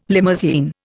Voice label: "limousine", Indian